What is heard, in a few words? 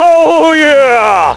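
"oh yeah", male; Splashdown; XBOX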